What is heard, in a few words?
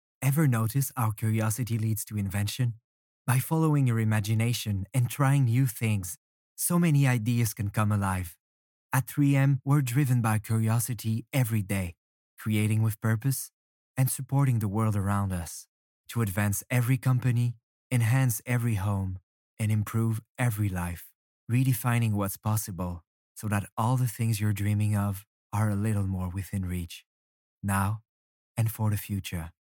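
French Canadian, accented English; GoLocalise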